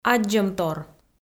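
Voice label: "аддзӧмтор", Komi, female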